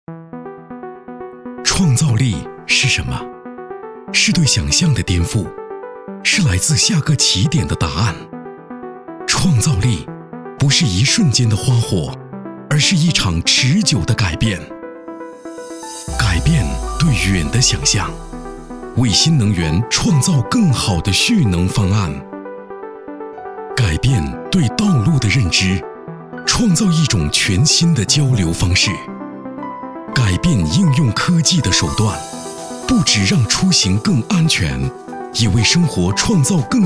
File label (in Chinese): 科技感 时尚感